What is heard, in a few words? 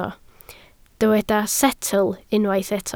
"setl", Welsh